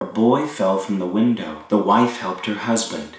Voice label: xrev T60 610ms, dereverb